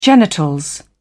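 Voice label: "genitals", British English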